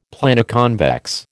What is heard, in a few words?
"Planoconvex", American